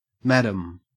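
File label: "madam", Australian English; pronunciations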